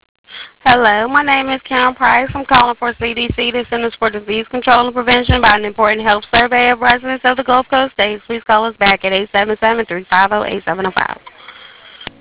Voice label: Voicemail message